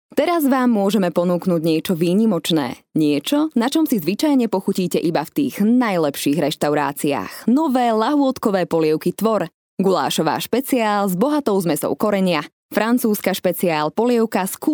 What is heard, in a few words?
slovak voiceover locutora eslovaca